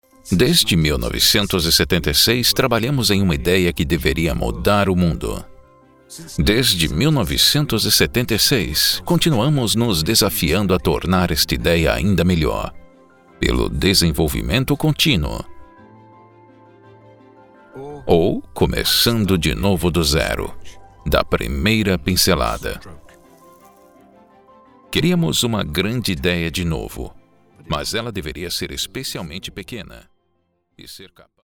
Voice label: Corporate General Rational